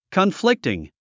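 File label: "conflicting", English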